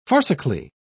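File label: "farcically", British English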